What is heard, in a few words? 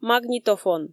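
"магнитофон", Komi, female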